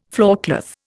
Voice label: "floorcloth", Indian